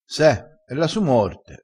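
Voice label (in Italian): "e la su morte", dialettale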